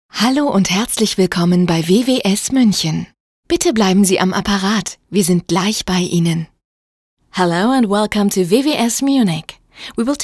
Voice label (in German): telefonansagen